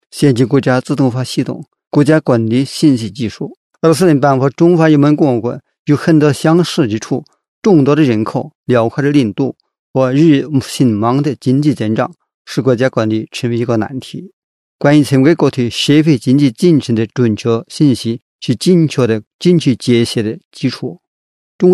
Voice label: chinese male